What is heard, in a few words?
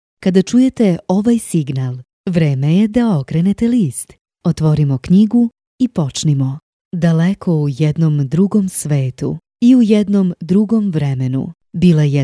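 Serbian, female, audiobook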